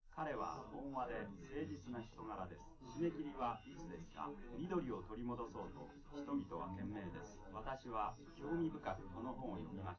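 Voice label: male, live recording; SiSEC11; underdetermined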